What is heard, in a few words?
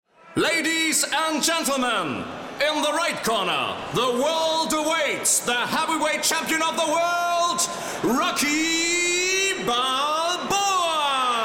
english; boxen